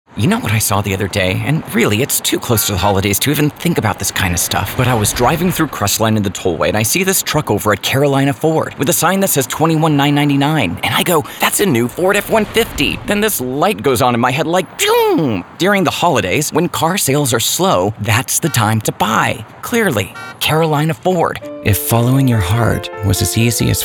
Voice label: Automotive VO